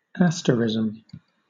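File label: "asterism" English, British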